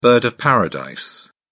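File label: "bird of paradise", British English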